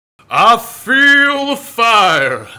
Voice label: Preacher - I Feel The Fire; blackjack; Intro Voices